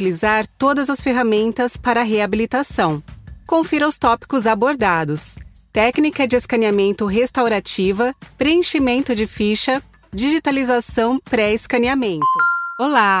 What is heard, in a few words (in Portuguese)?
e learning tutorial treinamento